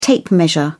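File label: "tape measure", British English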